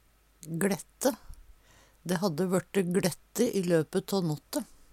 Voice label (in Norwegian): glette, Numedalsmål